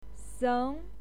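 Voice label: "seng2", Mandarin Chinese pinyin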